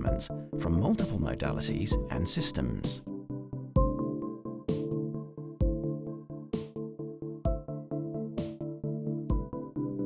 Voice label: Hybrid Operating Room corporate video young UK male voice over artist; Philips